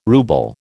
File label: "ruble", American